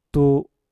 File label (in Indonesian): "tu" satu, Mpur